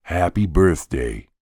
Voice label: human voice character american male 40s says happy birthday